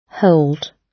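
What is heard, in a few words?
"hold", British English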